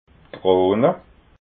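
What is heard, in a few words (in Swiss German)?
"krona", Alsatian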